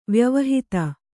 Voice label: "ವ್ಯವಹಿತ", Kannada; vyavahita; hidden; concealed.; not following in order